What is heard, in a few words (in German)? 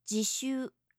"jishu", japanische maedchennamen